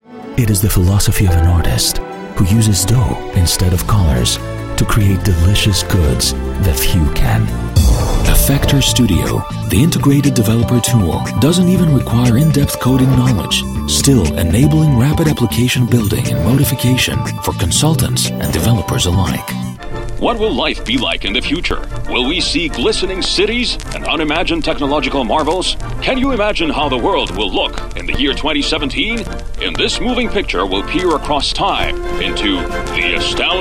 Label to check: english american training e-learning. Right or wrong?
Wrong